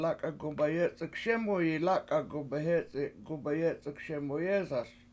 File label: One Is Really Closed One Is Not Very Close, washo; lAk7a7 gumbeyEc71k SEmuyi lAk7a7 gumbeyEc71k SemuyEEsaS